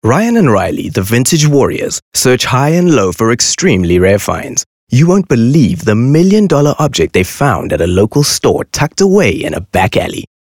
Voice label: English Skill Promo